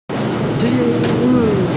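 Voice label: "ti3yu4", Mandarin Chinese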